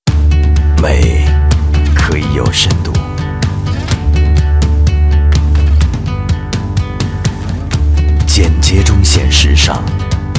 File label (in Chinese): 男 汽车广告, 国语男声配音; 大师级录音; 全新捷豹XF